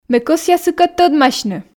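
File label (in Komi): ме кӧсъя сыкӧд тӧдмасьны, Komi, female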